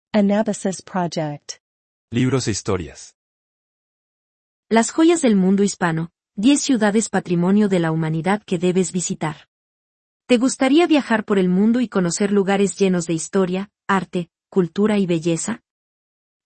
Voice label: voiceover